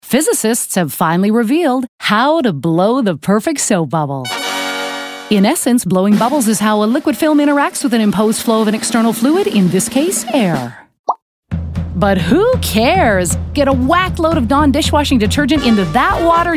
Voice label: Commercial Animated fun comical housewife; Dawn Dish liquid